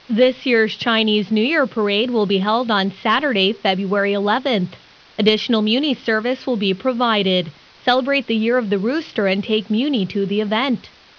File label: English Promotion; bayarea; SFMuni